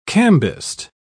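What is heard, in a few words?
"cambist", British English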